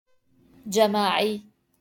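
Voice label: "جماعي" Arabic; collective (masc.)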